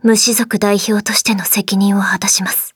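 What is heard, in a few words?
start, Japanese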